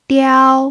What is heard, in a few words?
"diao1", pinyin